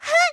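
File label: voice unbalance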